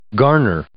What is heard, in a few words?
"garner", English